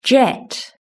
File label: "jet", british english